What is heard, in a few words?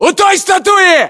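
landmark near statue, Russian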